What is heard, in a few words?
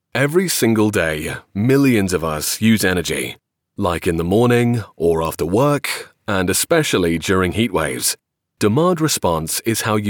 english british explainer videos